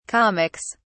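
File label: "comics", British English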